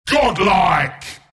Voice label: kill godlike, announcer; killing spree; dota; announcer dlc bristleback